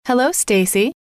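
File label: hello, female; trainer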